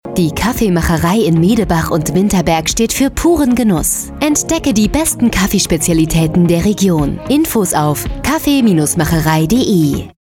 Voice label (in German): Funkspot; Kaffeemacherei